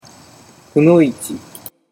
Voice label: "kunoichi", Japanese